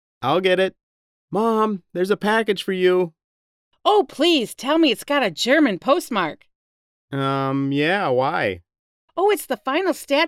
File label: Conversation; Real Heroes 4